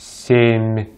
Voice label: "семь", Russian; easypronunciation

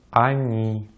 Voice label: "они", Russian; Vocales átonas en ruso; easypronunciation

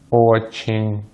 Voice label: "очень", Russian

